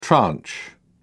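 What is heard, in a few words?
"tranche", British English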